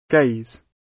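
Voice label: "gaze", British English; Time, history; Thời gian, lịch sử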